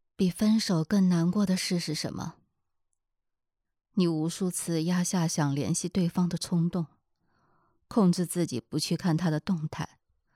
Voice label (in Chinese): 普通话青年女性音有声读物旁白情感电台